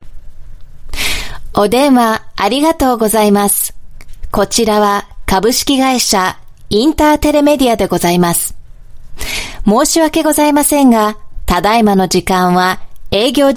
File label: Japanese Female IVR